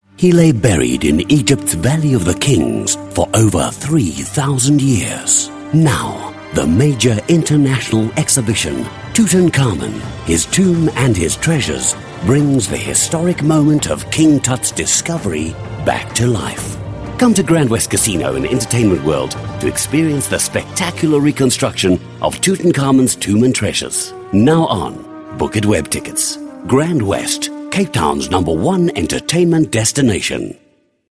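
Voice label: English South African, Commercial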